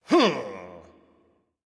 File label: victory, vox; smite; voicepack; hercules